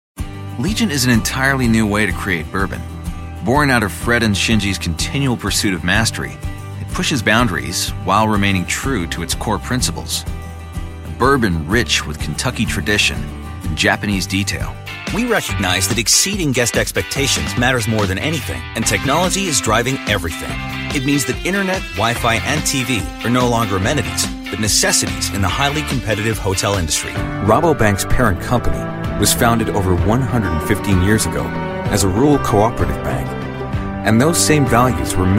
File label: corporate industrial narration